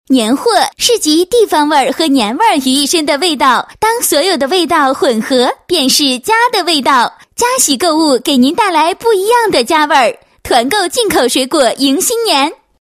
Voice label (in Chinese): 女 春节广告, 配音; 年货团购宣传